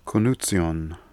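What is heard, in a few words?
"Konuzion", pronunciations; Fourth Way; Beelzebub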